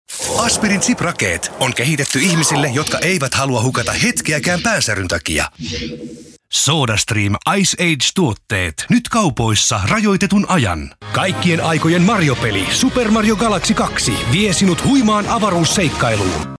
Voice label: fi commercial

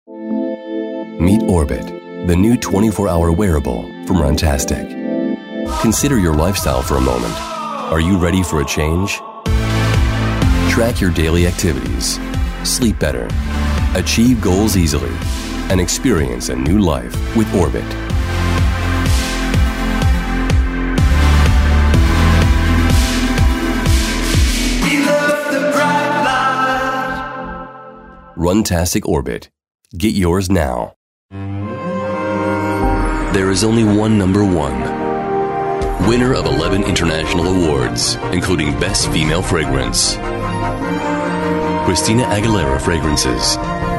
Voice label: us commercials

